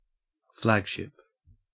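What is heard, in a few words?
"flagship", Australian English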